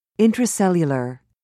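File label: "intracellular", British English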